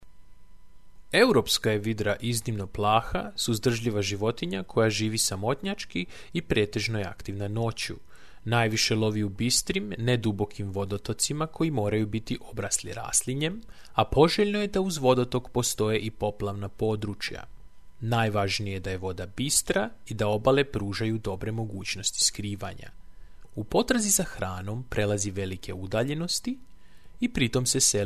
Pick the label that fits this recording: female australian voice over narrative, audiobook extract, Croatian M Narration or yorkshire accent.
Croatian M Narration